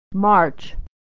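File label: "march", American English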